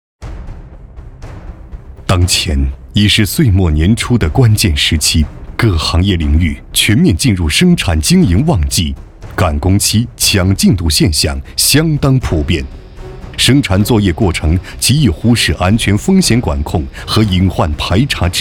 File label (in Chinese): 男 警示教育片 安全生产 事故警示片